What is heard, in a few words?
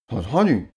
male talk impressed